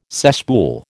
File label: "cesspool", American